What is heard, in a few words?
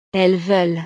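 "elles veulent", French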